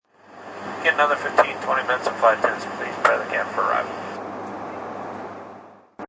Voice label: Pilot Prepare Cabin Arrival, A pilot announces "15 minutes" left and tells crew to; A pilot announces “15 minutes” left and tells crew to prepare the cabin for arrival; Airplane Sound Effects